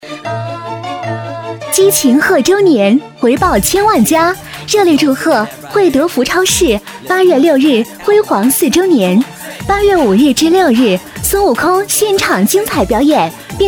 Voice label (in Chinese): 促销; 惠德福超市